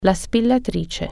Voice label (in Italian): "stapler", Italian